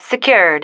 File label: "secured", female